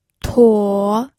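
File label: "tuo3", Mandarin Chinese, pinyin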